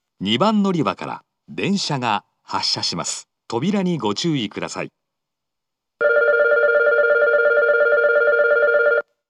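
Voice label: departure, station announcement, Fukuoka city subway; 発車-2番のりば; 福岡市地下鉄 空港線・箱崎線 中洲川端駅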